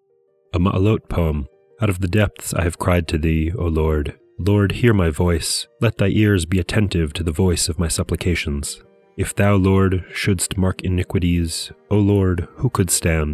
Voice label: poetry and prayer psalm english